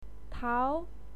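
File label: "tao2", Mandarin Chinese pinyin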